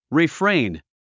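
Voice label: "refrain", English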